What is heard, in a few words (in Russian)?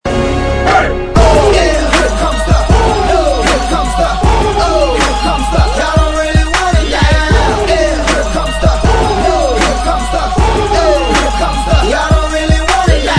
molodoy golos